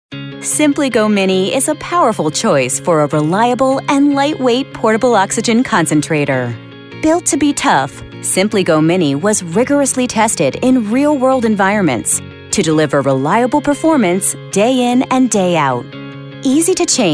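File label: English US, female, corporate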